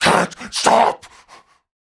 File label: Cannibal Male Taunt; Trunk Act One Monster